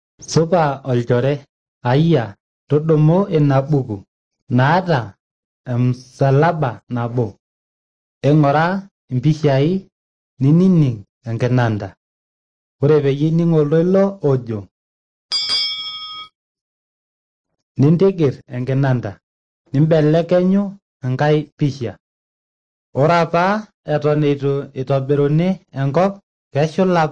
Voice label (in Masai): maasai bsv nt drama; good news; bible